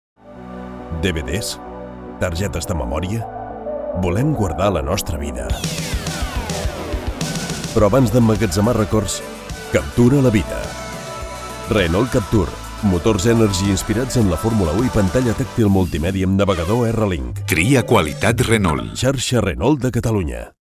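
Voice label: Catalan Commercial; GoLocalise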